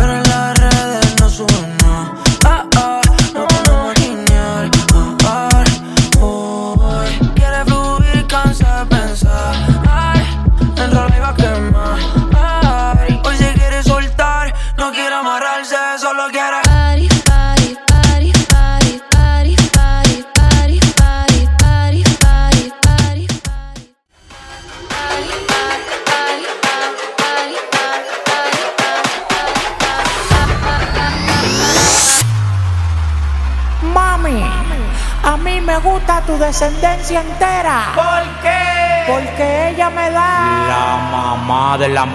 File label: Party Acapella Intro Transition